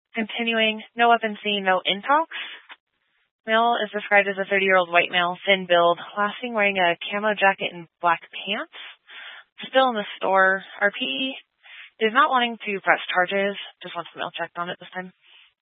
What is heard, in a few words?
Police Department Dispatch, scanner